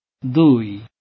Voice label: "2" Bengali

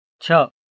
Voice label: 6, Gujarati

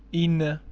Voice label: "ine", Armenian

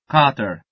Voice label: "kater", Albanian

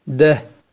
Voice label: "deh" Kurmanji, 10

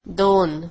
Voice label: "two" Marathi

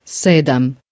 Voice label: "sedam", Croatian

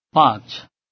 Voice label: "5", Bengali

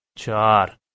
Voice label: "chaar", Balochi

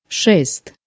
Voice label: "shest", Croatian

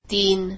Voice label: "three" Marathi

